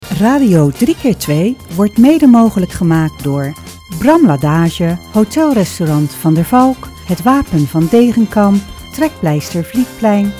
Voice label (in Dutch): spot; Blokken